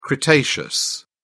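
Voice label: "cretaceous", British English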